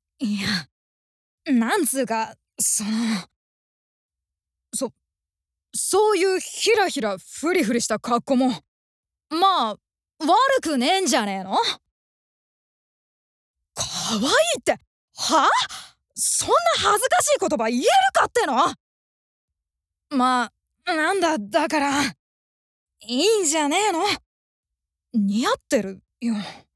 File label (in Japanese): 10代男子